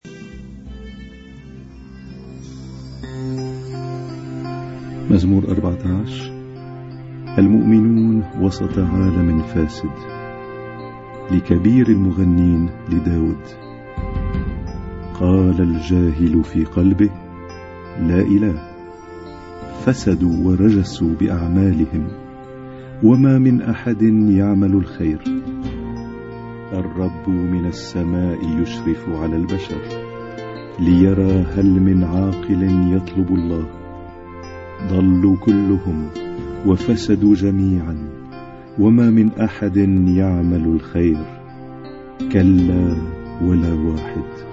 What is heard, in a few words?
Arabic Psalm Zaboor